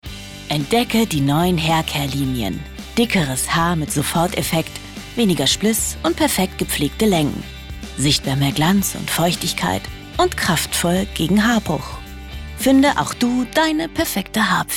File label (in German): Werbung Beauty, Beauty Commercial, German